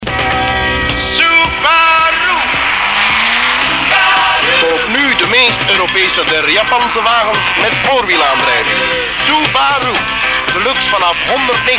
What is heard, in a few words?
commercial